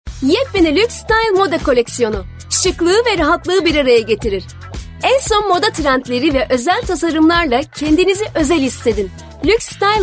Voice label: reklamm

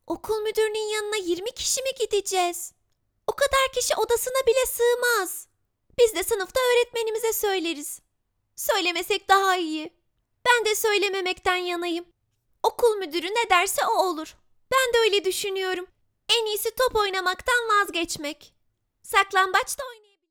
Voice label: kiz cocuk ses

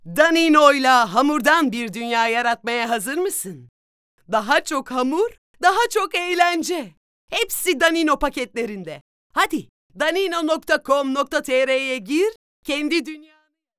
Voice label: reklam

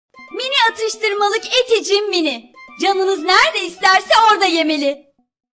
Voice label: reklami; eti cin

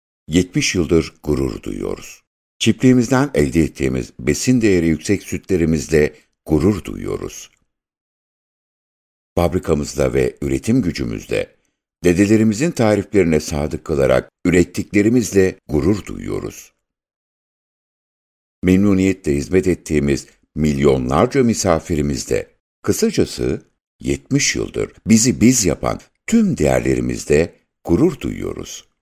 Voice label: reklam; sutis 70 yil

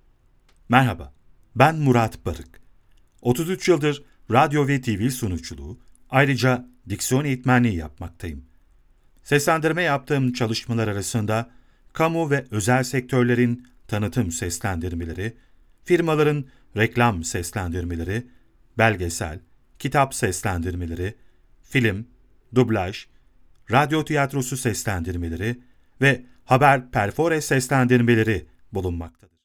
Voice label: tanitim

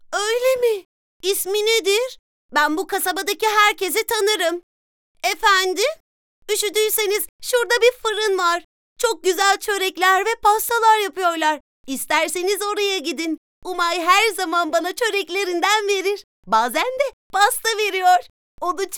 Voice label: kiz cocuk ses

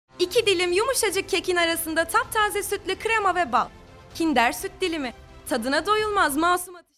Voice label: reklam

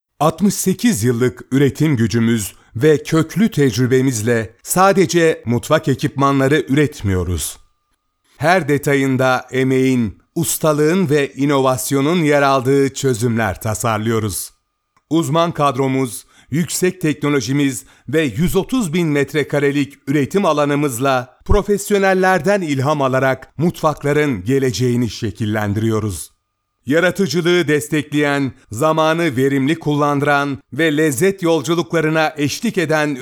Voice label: seslendirme; oztiryakiler

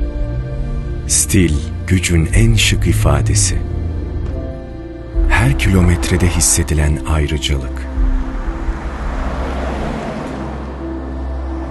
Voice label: seslendirme; audi

